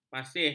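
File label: "masih", Malay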